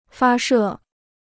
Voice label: "发射", Chinese

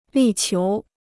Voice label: "力求", Chinese

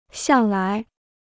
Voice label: "向来", Chinese

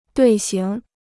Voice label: "队形", Chinese

